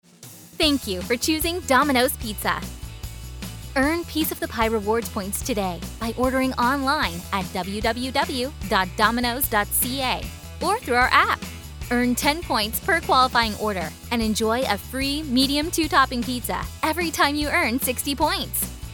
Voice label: IVR Sample; Dominos